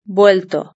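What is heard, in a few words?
"vuelto", Spanish; verb-conjugator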